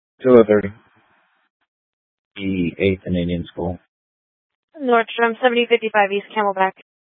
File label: Scottsdale Police, Simulcast